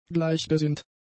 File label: "gleichgesinnt", German; adjectives